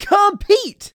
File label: announcer compete; Nick Summer Sports Stars